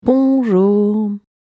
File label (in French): "Bonjour" en descendant; "Bonjour" decrescendo